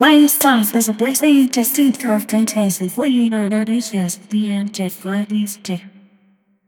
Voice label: a heroic futuristic femal, announcer